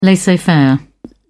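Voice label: "laissez-faire", British English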